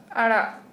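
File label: Santali female left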